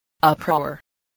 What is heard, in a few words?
"uproar", spelling